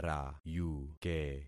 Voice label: "rayuke", concat syllables; Affixing experiment